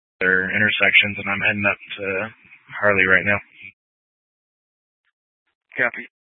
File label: Tactical Incidents, scanner